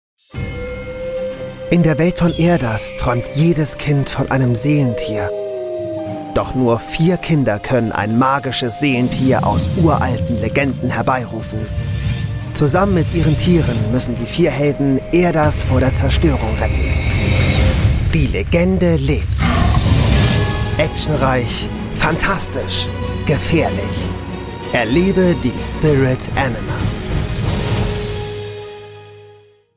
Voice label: German, Comercial